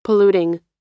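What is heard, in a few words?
"polluting", American English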